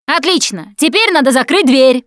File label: close the door, russian, Survivor Voice Producer